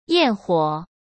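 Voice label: "焰火", Mandarin Chinese; chinese flashcards; hsk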